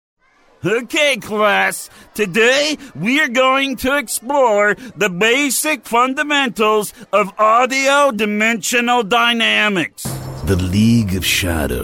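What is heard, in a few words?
English US Characters; GoLocalise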